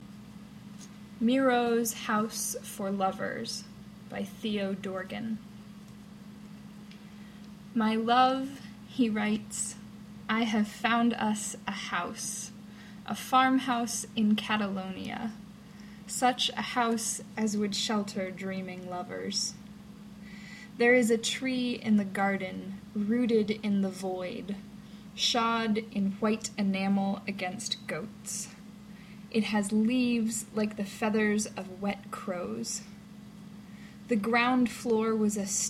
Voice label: Poem